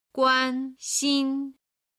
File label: "guan1xin1", Chinese